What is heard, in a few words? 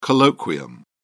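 "colloquium", British English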